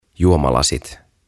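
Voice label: "Juomalasit", "Glasses", Finnish, English; OTAVA KONSERNI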